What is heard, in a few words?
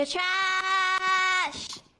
youre traaaaash